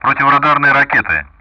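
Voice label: Speech Sound Russian; Common Player Weapon ARM; DCS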